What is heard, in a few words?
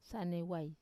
"sanewai", intestines, Wamesa